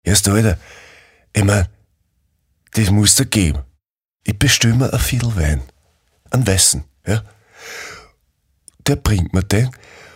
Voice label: Dialekt DE

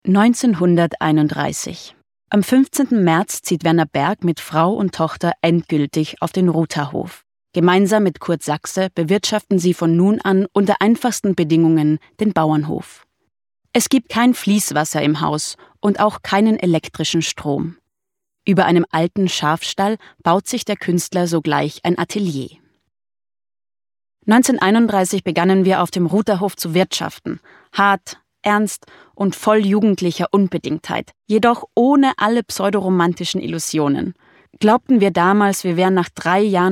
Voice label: Audioguide

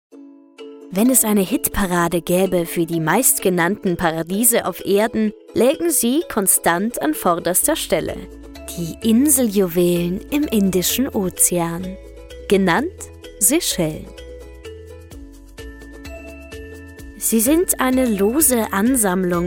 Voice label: Dokumentation

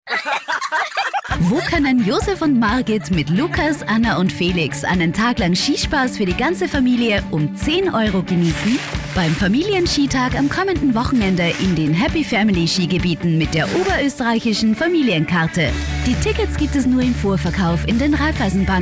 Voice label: Werbung DE

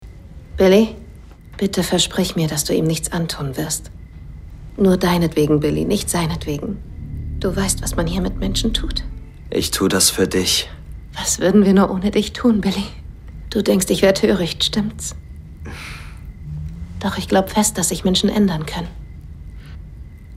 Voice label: Synchron Mutter; BillyTheKid

